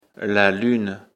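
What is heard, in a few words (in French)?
"lune", French; afrikalan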